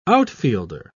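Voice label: "outfielder", British English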